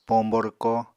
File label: "pombo rwko", Apatani